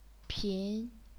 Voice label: "pin2", chinese pinyin